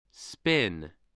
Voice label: "spin", voice spelling